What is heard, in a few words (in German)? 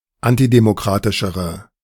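"antidemokratischere", German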